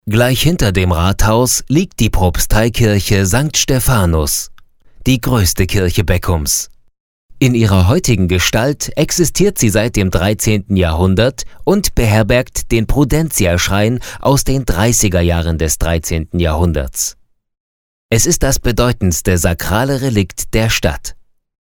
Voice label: audioguide